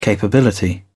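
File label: "capability", British English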